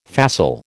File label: "facile", American